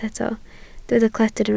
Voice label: "cledr", Welsh